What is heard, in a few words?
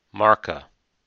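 "marks" English, American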